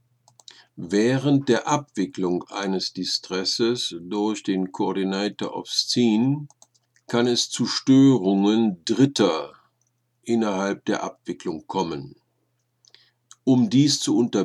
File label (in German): Silence Mayday Einzelstoerer, Funk Seefunk; Haubentaucher; short range certificate sprechfunkbeispiele nach imo vorgabe; Distress: Störung des Funkverkehrs durch Dritte; Einzelstörer Nixe